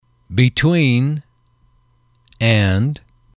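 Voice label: between X and Y, male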